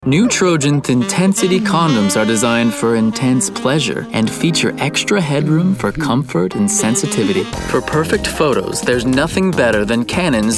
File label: commercial VO